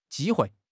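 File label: "ji1 hui4", Chinese; HSK; HSK3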